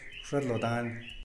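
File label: "wxadlodan", Tlacochahuaya Zapotec; type of grasshopper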